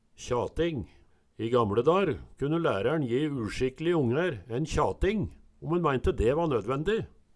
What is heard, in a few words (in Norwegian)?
kjating, Numedalsmål